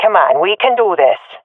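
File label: round losing, swat female; vo; Counter Strike Model Pack; CS:GO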